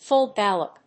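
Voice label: "full gallop", English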